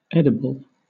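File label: "edible", British English; pronunciation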